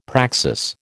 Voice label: "praxis", American